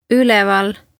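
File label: "Uleval", Estonian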